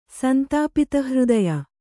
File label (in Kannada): "ಸಂತಾಪಿತಹೃದಯ", Kannada